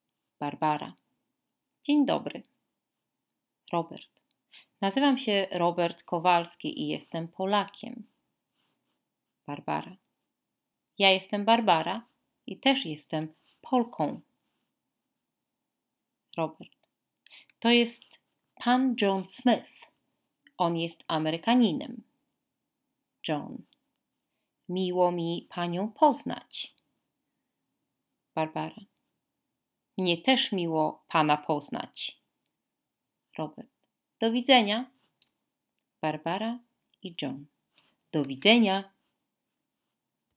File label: dialogue, Polish language